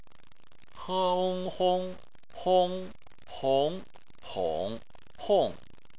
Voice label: "hong", chinese

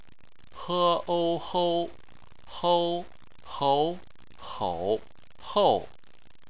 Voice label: "hou", chinese

